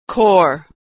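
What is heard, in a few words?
"corps", American English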